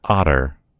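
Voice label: "otter", American English; gcompris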